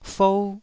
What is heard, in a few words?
"fou1", taiwanese syllable